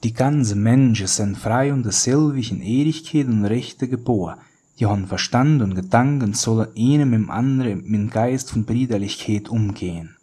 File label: Hunsrik